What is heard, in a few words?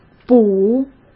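"补", Mandarin Chinese; chinese-flashcards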